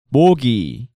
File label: "Mogi", Korean